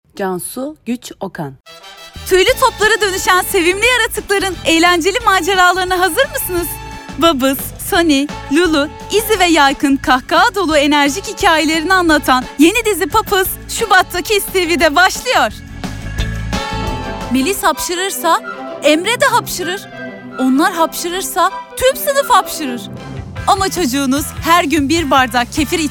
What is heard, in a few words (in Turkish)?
turkce kadin